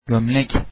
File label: shirt, Turkish